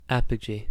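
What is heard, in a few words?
"apogee", US English; pronunciations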